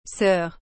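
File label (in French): "soeur", French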